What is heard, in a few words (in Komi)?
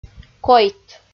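"койт", Komi, female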